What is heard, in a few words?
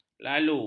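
"lalu", Malay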